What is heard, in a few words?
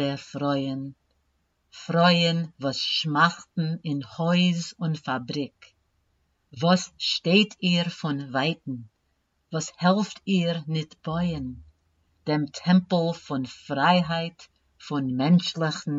Yiddish pronunciation; all the way through; Yiddish Community Chorus of the Boston; A Besere Velt